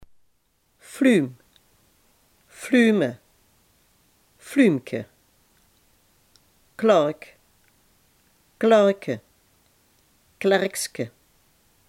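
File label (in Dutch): "fluim" Limburgish dialect word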